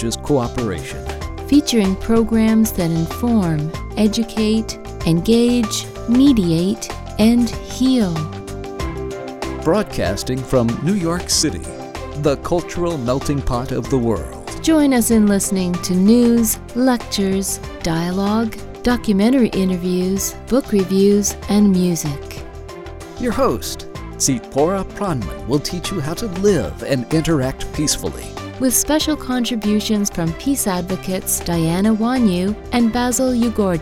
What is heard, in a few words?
radio audio intro; ICERM